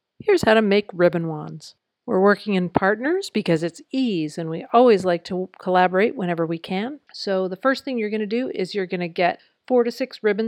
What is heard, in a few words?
audio commentary and implementation tips; EASE: Unit 3; Constructing Ribbon Wands